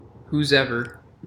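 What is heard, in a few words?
"whosever", American English; pronunciations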